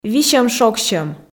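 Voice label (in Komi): "висьӧм-шогсьӧм", Komi, female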